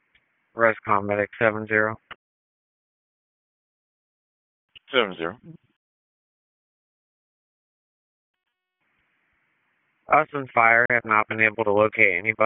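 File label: EMS Dispatch; p25; wake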